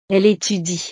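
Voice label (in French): "elle etudie", French; Ella estudia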